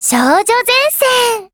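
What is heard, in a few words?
title call, Japanese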